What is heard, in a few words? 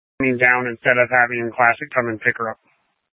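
Incident Tac 1, Scanner audio recording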